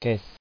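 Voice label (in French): "caisse" French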